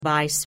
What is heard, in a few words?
"weiss", Dutch pronounce vowels; ei in height